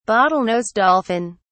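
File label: "bottlenose dolphin", British English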